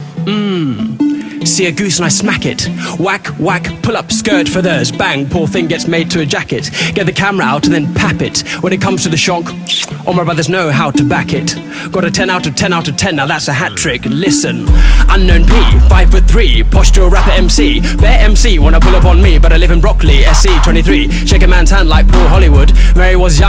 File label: british